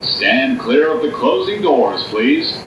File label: Stand Clear MTA